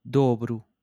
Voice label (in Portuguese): "dobro", Portuguese